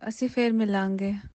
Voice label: punjabi; POP UP Zagreb